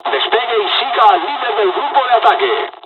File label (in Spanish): first wave takeoff, dialogs spanish; War Thunder